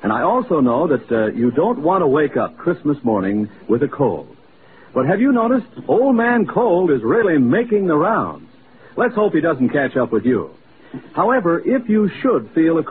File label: vintage commercials alka seltzer